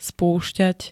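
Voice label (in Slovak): "spúšťať", Slovak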